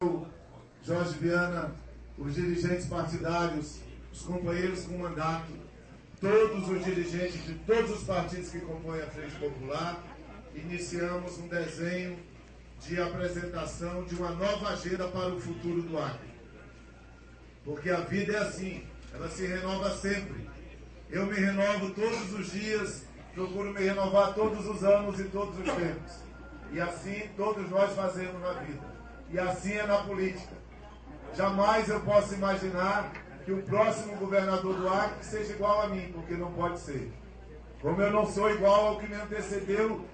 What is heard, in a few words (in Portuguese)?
tv anuncio